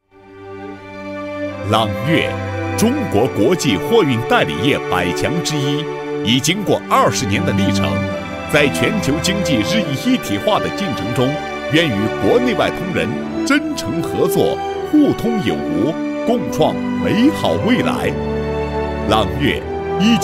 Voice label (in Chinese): 男, 企业宣传; 朗越，中国国际货运代理业百强之一